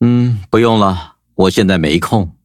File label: loading, zh-hant; cookierun